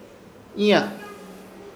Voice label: "Iya", Ishir Chamacoco; acompanar

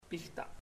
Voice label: daughter, chamacoco; Puerto Diana

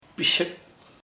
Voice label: mine, chamacoco; mio

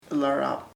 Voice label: old (person), chamacoco; anciano

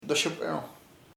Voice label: flesh (animal), chamacoco; carne

